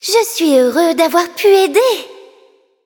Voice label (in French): victory, fr; Cookie Run